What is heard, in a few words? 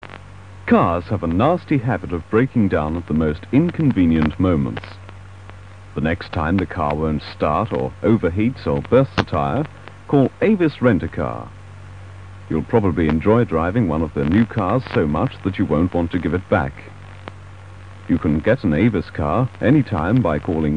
Radio 390 commercial, car hire; Avis